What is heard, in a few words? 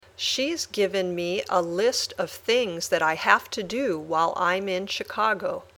Dictation